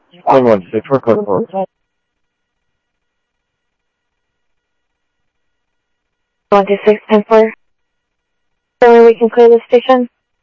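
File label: Sheriff East County, scanner audio; South Scottsdale; MCSO - EAST Sheriff-EastCnty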